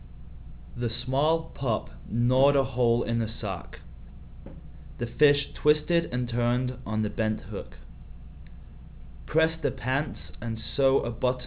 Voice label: british, open speech; uk